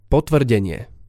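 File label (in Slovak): "potvrdenie", Slovak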